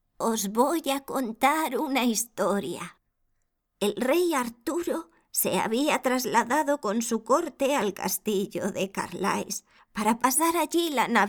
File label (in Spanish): FEMALE castellano Anciana